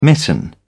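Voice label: "mitten", British English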